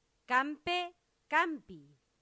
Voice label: "campe campi", latin; santillana